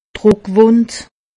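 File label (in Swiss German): "druckwund", Alsatian; medecine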